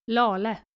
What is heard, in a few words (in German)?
"Lale", daenische maedchennamen